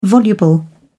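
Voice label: "voluble", British English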